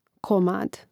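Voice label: "komad", Croatian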